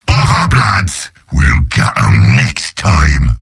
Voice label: jeers; demoman; mvm